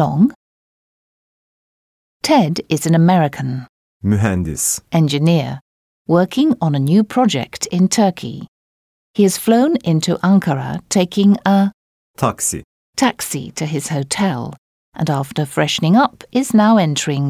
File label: Dialogue, Turkish